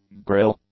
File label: "grille", English; nouns